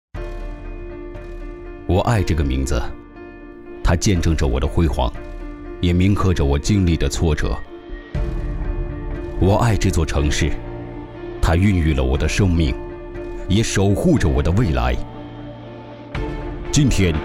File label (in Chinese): 男 旁白 中央空调; 海尔中央空调